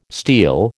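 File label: "steel", American English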